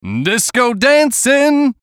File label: VOICE COMICAL; Funny Sound; Radio Voice; Blastwave